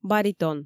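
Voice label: "баритон" Komi, female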